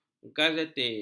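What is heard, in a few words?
"gazetir", Malay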